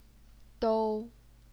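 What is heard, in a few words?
"dou", first tone, Chinese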